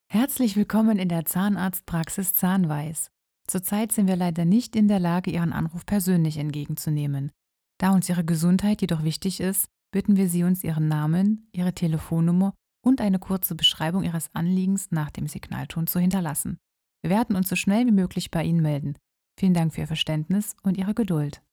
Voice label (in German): Zahnarztpraxis, Sprecherin; AB Zahnarztpraxis